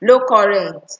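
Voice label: "low current", Nigerian Pidgin, female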